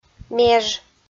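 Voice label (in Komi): "меж", Komi-Zyrian, female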